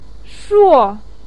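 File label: "shuo4", Mandarin Chinese, pinyin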